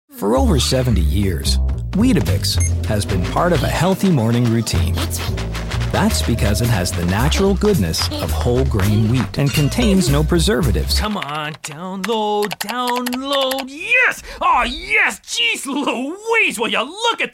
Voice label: male canadian multiple accents and characters commercial